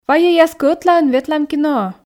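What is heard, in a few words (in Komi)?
вайӧй аски ӧтлаын ветлам киноӧ, Komi, female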